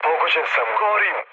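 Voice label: Serbian on fire mood low, speech; War Thunder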